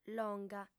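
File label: "longa", female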